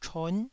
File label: "chon9", Taiwanese